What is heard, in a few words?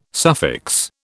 "suffix", British